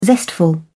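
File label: "zestful", British English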